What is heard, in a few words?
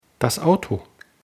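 "auto", German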